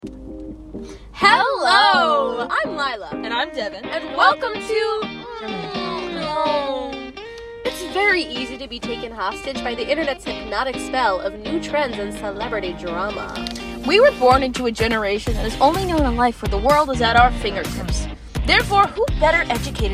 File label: Trailer with Music; LIHSA Student Podcasts